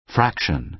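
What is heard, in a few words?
"fraction", American English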